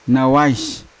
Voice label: "nawais" garden, Yawa

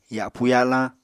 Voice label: "yapu yalañ", Apatani; Material Culture

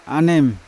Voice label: "anem", Yawa; branch; unat

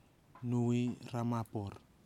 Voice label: "nui ramapor", Nafe (Kwamera); spring of water

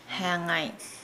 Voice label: "feed", Nukuoro

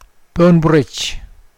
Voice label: "ground", Mokilese

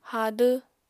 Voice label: "hadw", apatani

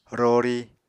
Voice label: "rori", Apatani

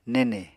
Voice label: "nene", Apatani

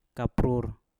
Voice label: "kaprur", Mpur; kacang

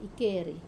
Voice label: "keri", defecate, Wamesa

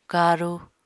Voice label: "karu", apatani

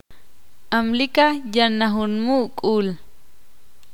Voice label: ixil; cielo de mal tiempo

